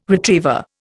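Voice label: "retriever", Indian English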